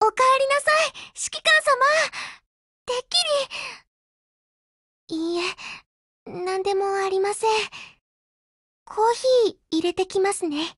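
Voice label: HELLO, Japanese; GF Alarm; M82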